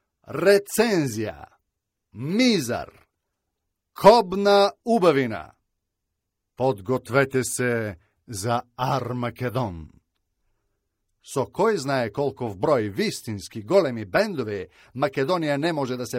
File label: Macedonian; Macedonian / voice overs; foreign languages